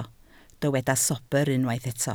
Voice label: "sobr", Welsh